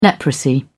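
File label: "leprosy", British English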